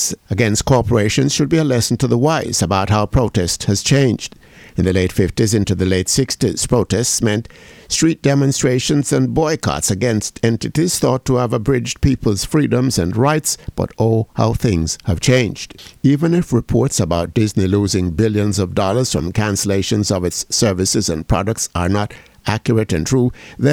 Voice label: COMMENTARY